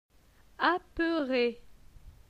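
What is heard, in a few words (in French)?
"Apeure", French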